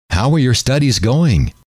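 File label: how are your studies going, male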